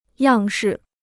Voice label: "样式", Chinese